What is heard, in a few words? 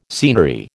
"scenery", American